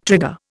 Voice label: "trigger", Indian